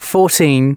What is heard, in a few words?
announcements male